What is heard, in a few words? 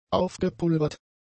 "aufgepulvert", German; adjectives